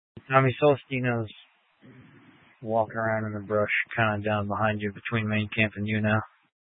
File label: Incident Tac 3, scanner